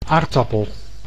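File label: "aardappel", Dutch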